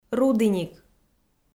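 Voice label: "рудіник", Komi, female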